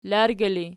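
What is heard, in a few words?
"largi" ergative, Archi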